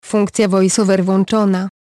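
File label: Polish VoiceOver On; EFI Audio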